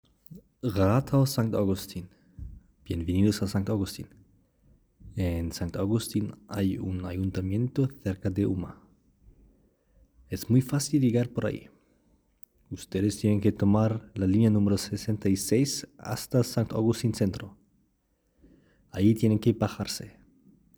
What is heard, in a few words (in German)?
spanisch; Rathaus Sankt Augustin